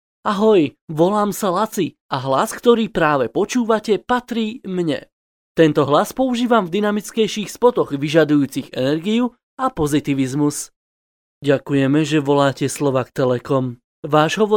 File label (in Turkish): Slovakca Erkek, slovakca seslendirme; erkek sesler